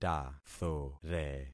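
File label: "tathore", concatenated syllables; Affixing experiment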